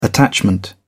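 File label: "attachment", English, British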